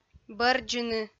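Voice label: "бӧрддзыны", Komi-Zyrian, female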